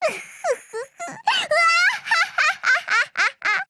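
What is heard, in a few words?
MOOD Japanese; puzzle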